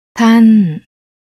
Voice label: "Taan", Thai; desire requesting